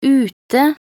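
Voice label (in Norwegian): "ute", Norwegian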